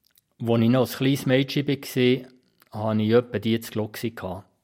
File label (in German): hochalemannisch bern; sprachliche vielfalt